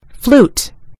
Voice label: flute, en GB; gcompris